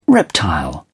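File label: "reptile", British English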